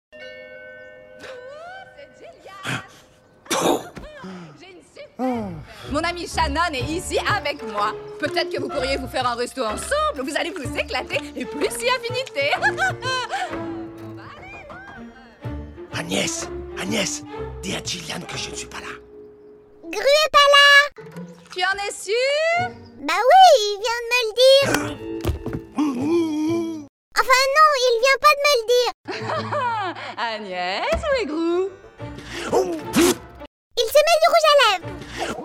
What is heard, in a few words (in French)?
DOUBLAGE; ORIGINALE ET DEMO; MOI MOCHE MECHANT; AGNES